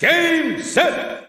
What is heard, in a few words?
Korean Announcer; Brawl